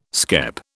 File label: "skep", British